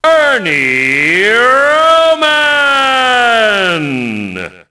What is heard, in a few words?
announce, ENGLISH; Rocky; XBOX